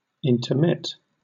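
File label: "intermit", British English; pronunciations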